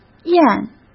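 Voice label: "咽", Mandarin Chinese; chinese flashcards; hsk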